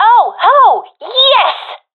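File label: sees team combo, professional female; vo; agents; Source Model Packs; Counter Strike Model Pack; CS2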